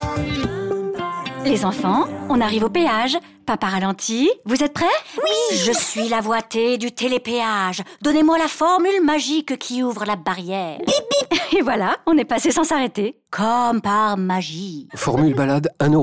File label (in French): Pub Radio Telepeage enfant maman; Area APRR